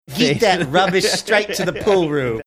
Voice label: saying get that rubbish straight to pool room australian accent